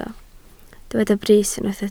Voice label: "brys", welsh